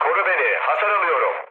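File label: aircraft damaged, mood low, Turkish; speech; War Thunder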